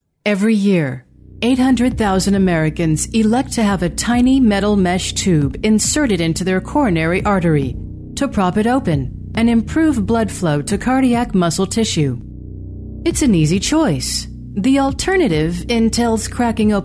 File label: Narration